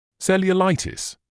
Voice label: "cellulitis", medical english uk